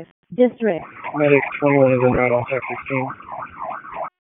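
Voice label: Public Safety Tactical, p25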